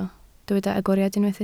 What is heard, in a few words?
"agoriad", Welsh